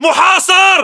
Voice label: inform suppressed generic, battle chatter, english